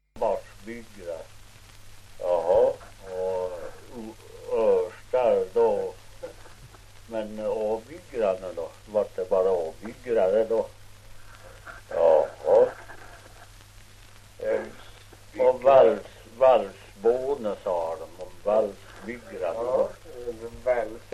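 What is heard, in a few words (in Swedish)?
Ockelbo Gästrikland, dialekter; Folkminnen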